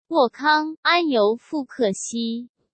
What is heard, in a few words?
sent zh-CN